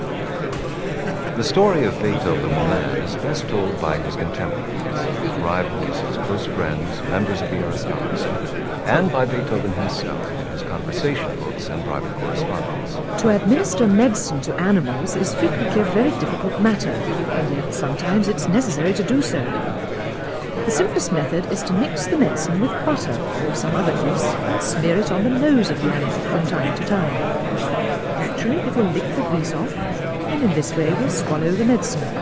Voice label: babble Noisy Speech